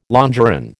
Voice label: "longeron", American